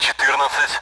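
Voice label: Speech Sound Russian Common AWACS Numbers; DCS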